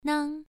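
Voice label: "nang", Mandarin Chinese syllable, first tone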